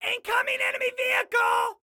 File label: Warn Vehicle, Excited Guy